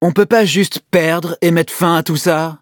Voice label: battle, fr